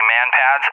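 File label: "MANPADS", English, USA; AirDefence; DCS; Allied Flight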